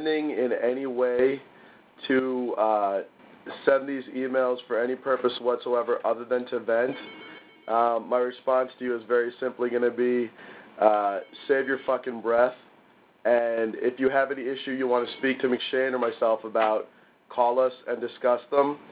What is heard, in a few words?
Voice Message